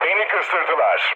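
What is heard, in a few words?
Turkish aircraft destroyed, mood low, speech; War Thunder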